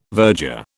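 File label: "virger", British English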